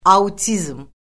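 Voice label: "autizm", Azerbaijani; Orfoqrafiya Lüğəti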